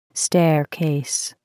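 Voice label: "staircase", English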